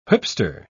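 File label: "whipster", British English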